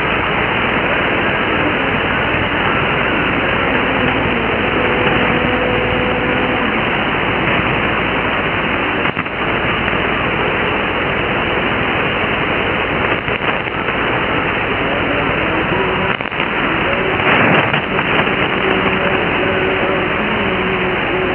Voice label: arabic